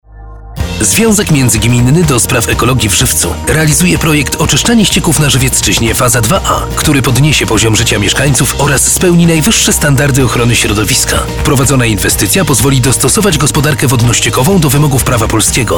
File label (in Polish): spot radiowy